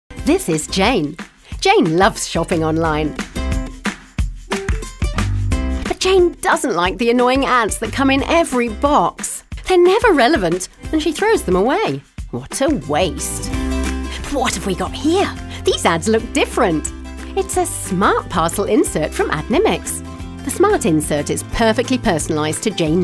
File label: Voice Artist WEBSITE COMMERCIAL